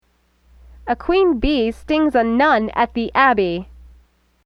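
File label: "abeille", French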